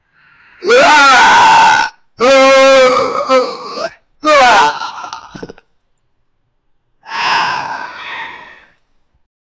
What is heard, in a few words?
realistic human male voic, oh no